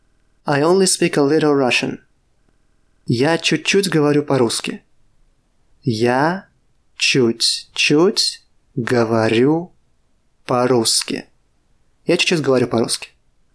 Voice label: Russian I only speak a little Russian